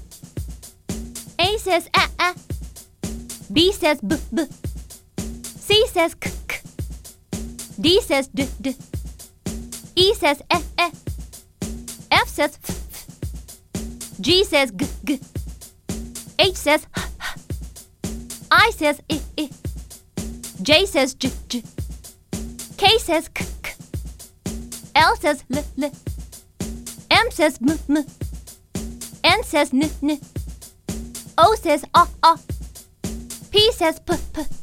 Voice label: Alphabet Jingle Say The Key Words; Unit 1

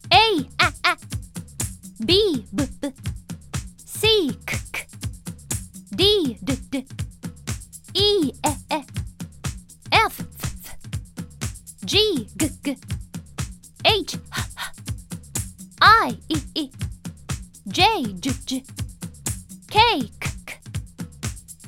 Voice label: Alphabet Jingle Animals Say The Key Words; Unit 2

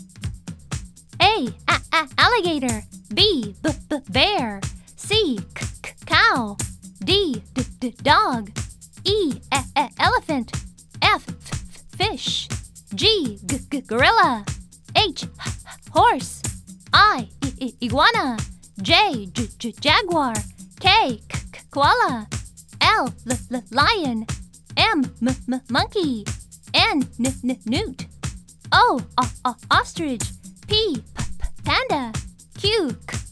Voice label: Alphabet Jingle Animals Point And Say; Unit 2

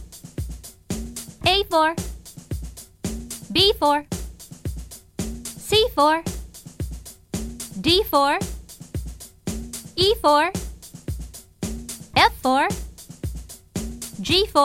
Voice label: Alphabet Jingle Verbs Say The Key Words; Unit 5

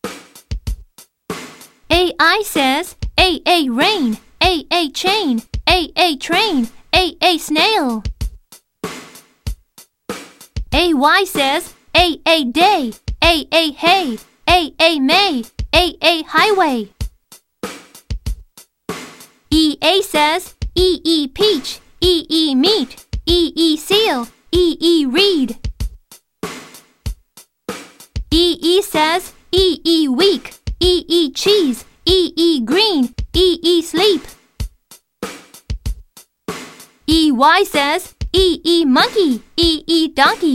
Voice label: Polite Vowels Listen And Say; Unit 2

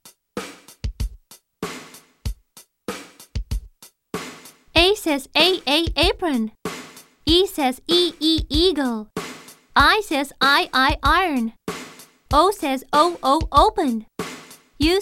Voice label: Long Vowels And Magic E Listen And Say; Unit 3

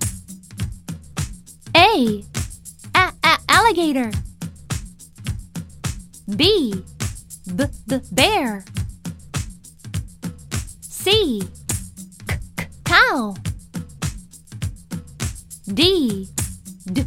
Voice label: Alphabet Jingle Animals Listen And Say; Unit 2

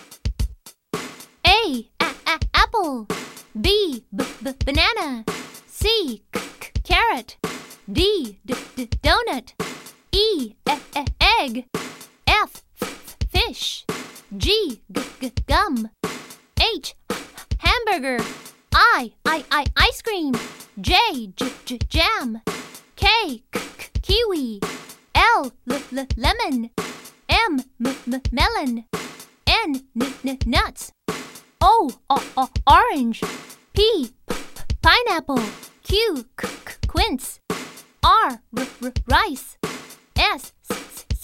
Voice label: Alphabet Jingle Food Point And Say; Unit 3

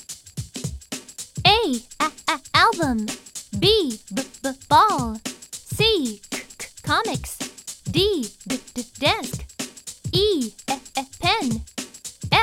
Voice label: Alphabet Jingle Things Around Us Point And Say; Unit 4

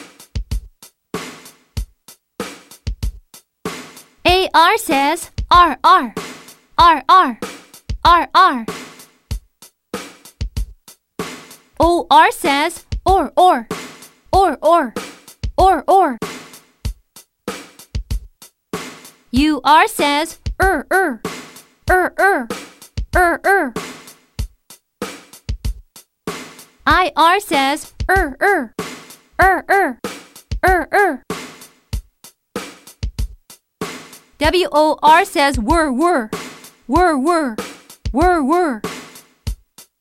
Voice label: Murmuring Sounds Point And Say The Key Words; Unit 4

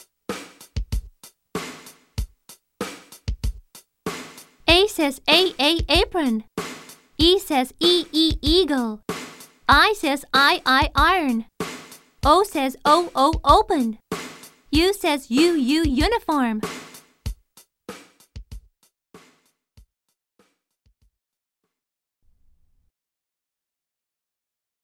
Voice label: Long Vowels And Magic E Listen And Say

